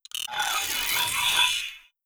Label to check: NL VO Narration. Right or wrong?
Wrong